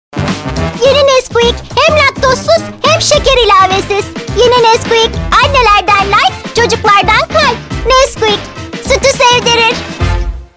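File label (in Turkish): reklam, Turkish; nesquik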